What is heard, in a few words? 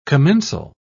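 "commensal", English, British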